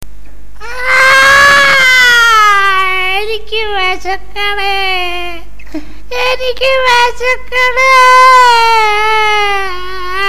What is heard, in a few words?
malayalam dialogue; hareesh kanaran